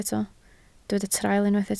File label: "traul", Welsh